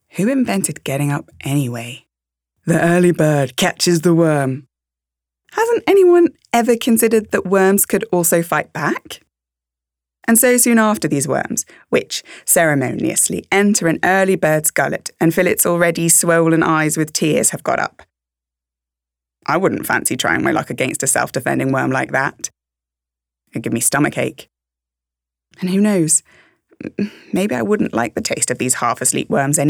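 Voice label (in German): British English, Schauspiel; The Early Bird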